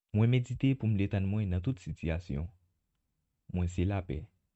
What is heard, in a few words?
Creole Male; I Am Peace